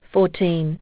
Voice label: aus, aussie prompts